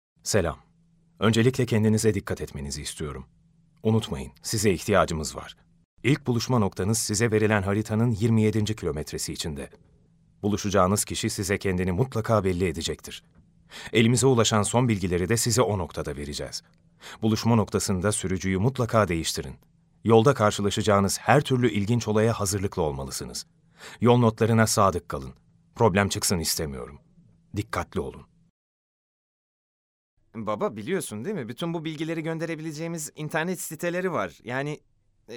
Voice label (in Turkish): ERKEK